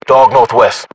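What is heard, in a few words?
British threat dog north west, battle chatter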